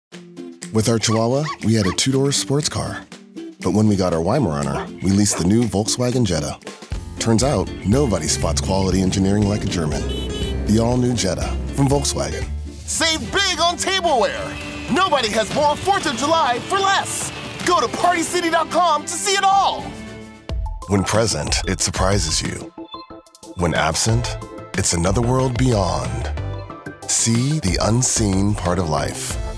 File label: Commercial; voice actor